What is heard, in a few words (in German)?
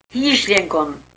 "hieschlenkrn", erzgebirgisch